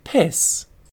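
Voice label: "piss", British English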